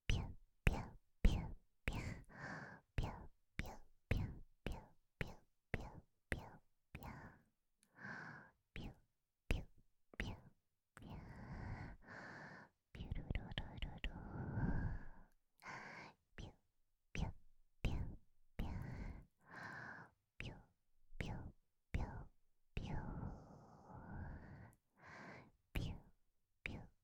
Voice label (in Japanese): 囁き; 我慢しないでいっぱい出して？ぴゅっぴゅっ